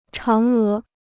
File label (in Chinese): "嫦娥", Chinese